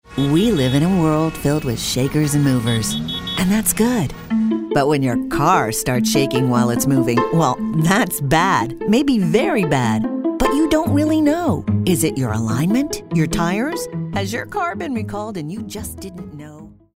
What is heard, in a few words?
animated